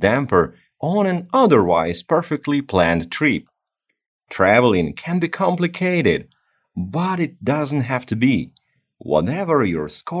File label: english the flights commercial